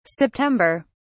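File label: "september", British English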